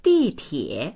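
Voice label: "di4tie3", Chinese; dìtiě; 地铁; 地下鉄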